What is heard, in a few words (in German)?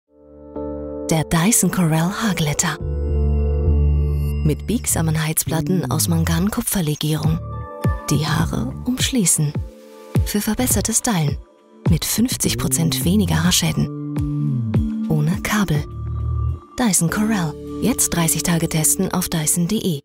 tv werbung; dyson corrale